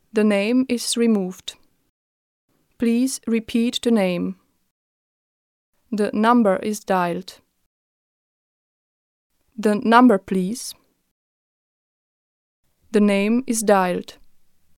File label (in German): englisch tschechisch, Telefonansagen, weiblich; englisch-tchechisch; Sprecherin